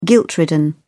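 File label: "guilt ridden", British English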